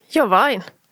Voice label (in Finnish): "Jovain", Frasboken